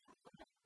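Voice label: "нимлунӧн", Komi, female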